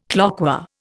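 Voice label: "cloqué", Indian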